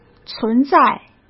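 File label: "存在", Mandarin Chinese; hsk; chinese-flashcards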